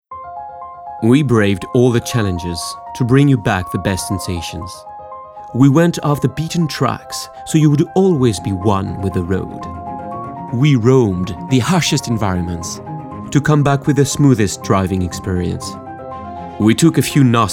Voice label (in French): Anglais; Peugeot